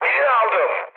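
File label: player killed enemy, mood high, Turkish; War Thunder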